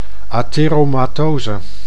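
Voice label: "atheromathose", Dutch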